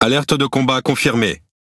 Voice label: EXECUTE ORDER, FR; World Of Warships